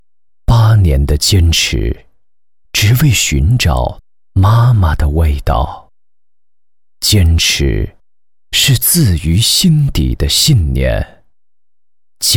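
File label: CHI, Chinese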